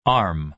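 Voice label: "arm", American English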